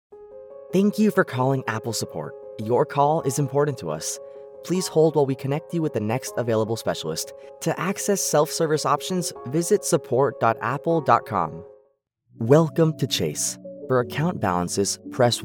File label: IVR; Spanish voice over for bilingual and Latin American audiences